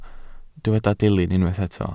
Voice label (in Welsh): "dilyn" Welsh